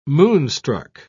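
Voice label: "moonstruck", British English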